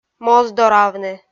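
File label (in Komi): "моздоравны", Komi, female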